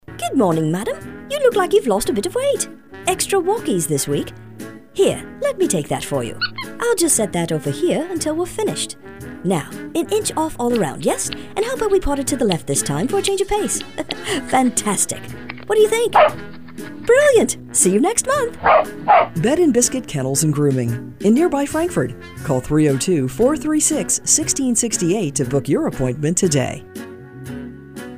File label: Character Accent and SFX